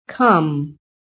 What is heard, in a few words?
"COME", PHONETIC